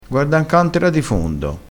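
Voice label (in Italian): "cantera", vocabolario dialettale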